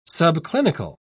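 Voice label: "subclinical", British English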